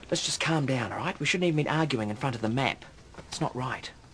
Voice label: arguing; murray